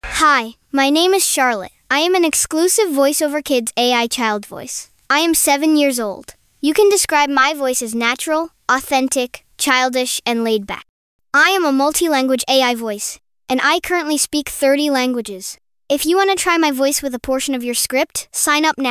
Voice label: girl